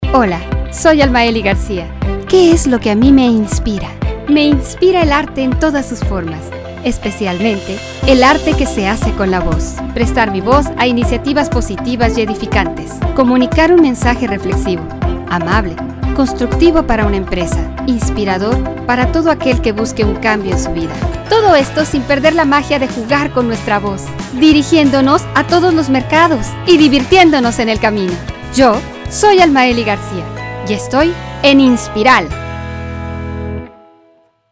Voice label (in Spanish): Locutora; Demo; INSPIRAL